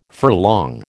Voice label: "furlong", American

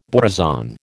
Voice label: "borazon", American

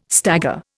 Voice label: "stagger", Indian

